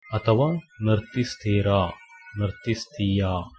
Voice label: dance, Kannada

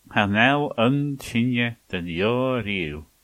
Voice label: one language, manx

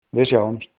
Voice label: goodbye, icelandic

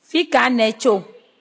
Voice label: "where from", Ewe

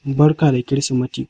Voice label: christmas hausa

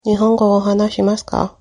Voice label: do you speak, japanese

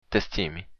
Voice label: i love you, occitan

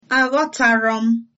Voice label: no understand, igbo

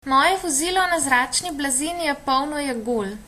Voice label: hovercraft, slovenian; Moje vozilo na zračni blazini je polno jegulj

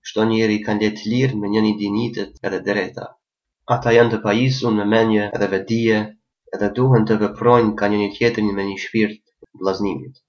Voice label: albanian gheg

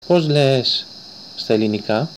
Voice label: how to say, Greek

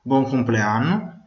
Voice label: birthday, venetian

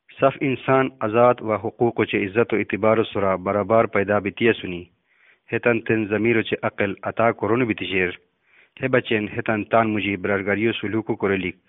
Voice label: Khowar

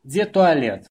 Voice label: "toilet", Belarusian

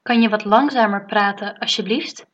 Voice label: slowly, dutch

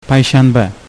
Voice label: thursday, uzbek

